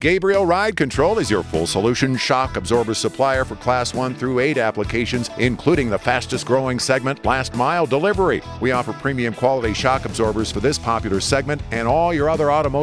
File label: Radio Ad; Red Eye; Summer Cooler